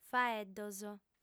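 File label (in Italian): "faeddos", lingua sarda, female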